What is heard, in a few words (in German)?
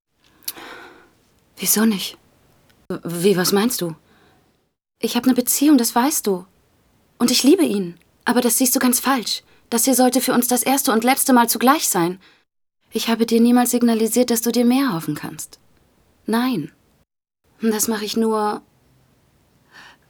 Synchron